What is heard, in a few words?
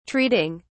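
"treating", British English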